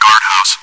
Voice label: chitchat; svencoop

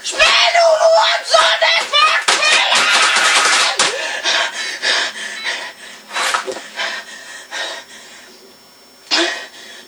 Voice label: angry; gearbox

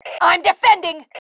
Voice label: iamdefending, FEM

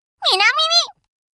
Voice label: "south", voice commands, Anime Loli; svencoop

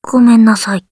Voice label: sorry, voice commands; svencoop; Blanc

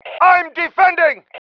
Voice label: i am defending, male

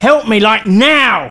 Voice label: Help, male, voice commands; svencoop

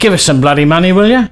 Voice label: Need Money, male; svencoop

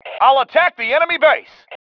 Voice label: attacking base, male

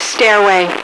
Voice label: "stairway", radio, female

